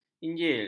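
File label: "injil", Malay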